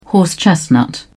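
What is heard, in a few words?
"horse chestnut", British English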